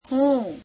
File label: gujarati me; હું [heum]; Object Pronouns